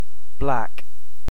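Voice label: "black", British English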